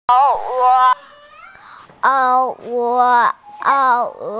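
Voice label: "er3 duo5", Mandarin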